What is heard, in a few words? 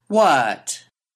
speech Rising Falling; pitch change; Macaulay Library; Larkwire